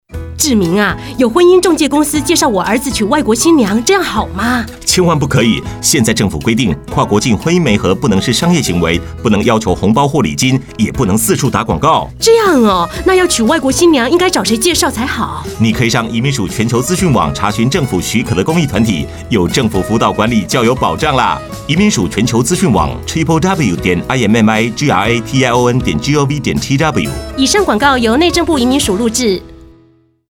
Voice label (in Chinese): 跨國境婚姻媒合宣導 國語篇; 106年跨國境婚姻媒合宣導-國語篇